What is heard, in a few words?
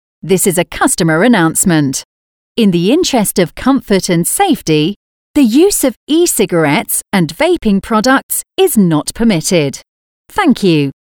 E-Cigarettes Female